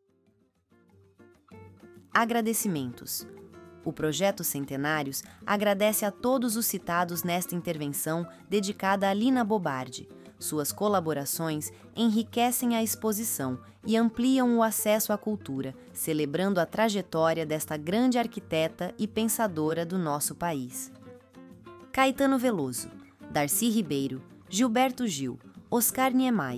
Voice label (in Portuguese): narracao; painel 2 agradecimentos